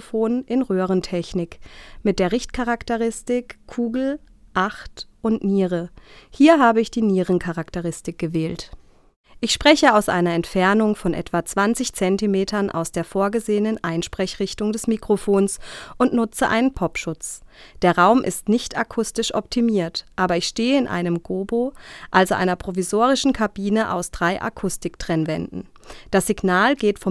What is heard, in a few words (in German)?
mojave ma-1000 sprache f